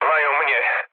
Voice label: aircraft destroyed mood low, speech pol